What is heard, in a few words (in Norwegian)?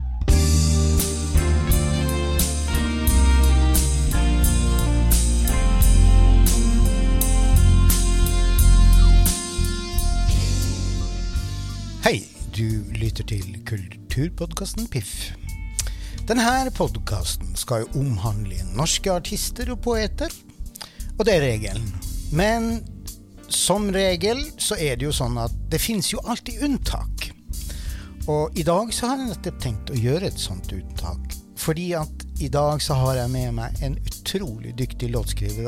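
Dybdeintervju; Kulturpodcasten PIF skal jo omhandle norske artister og poeter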